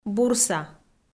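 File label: "бурса", Komi, female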